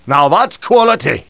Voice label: cheer; tf2demoman